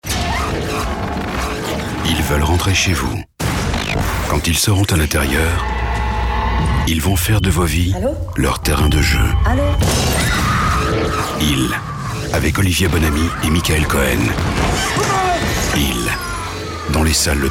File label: movietrailer vo low deep threatning; ils